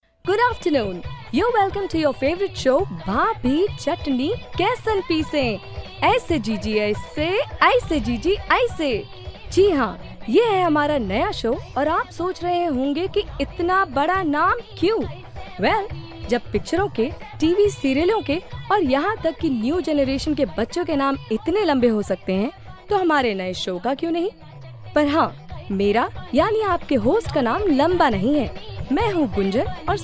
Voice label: RJ Hindi

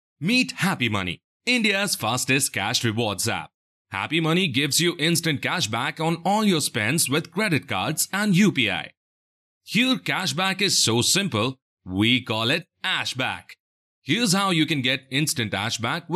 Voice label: Explainer English

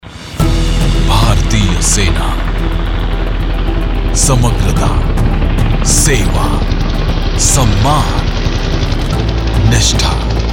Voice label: Army Spot

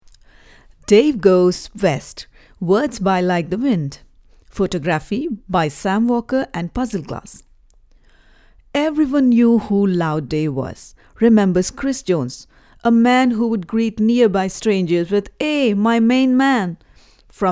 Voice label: English; Dave Goes west

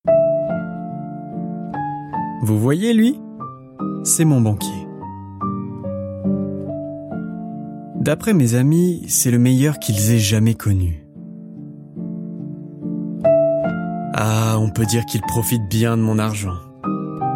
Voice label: french

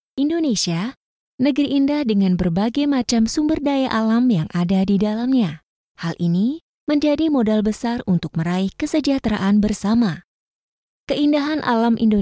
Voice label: BAHASA

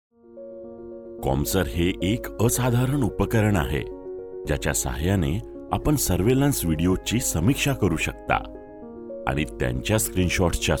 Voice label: marathi

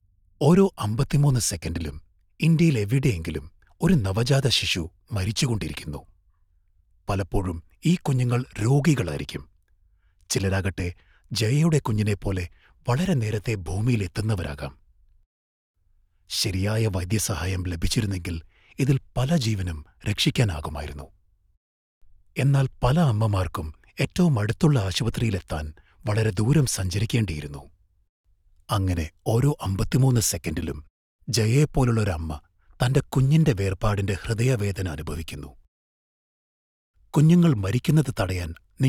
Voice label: TV Spot Malayalam

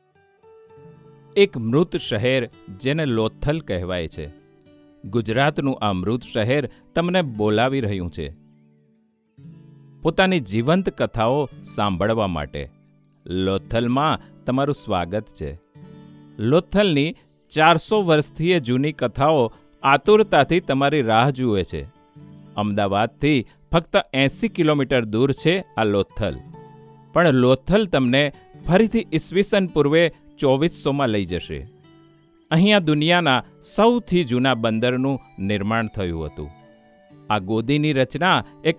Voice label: promo gujarati; lothal

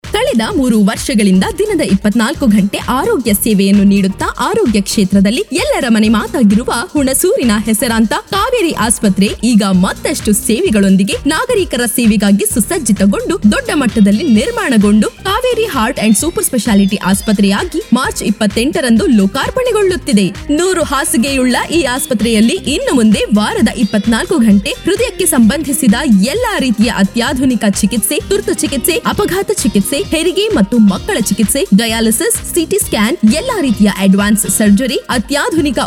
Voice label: kannada

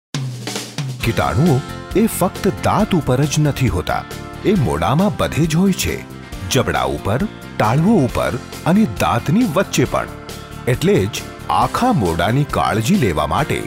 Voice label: promo gujarati

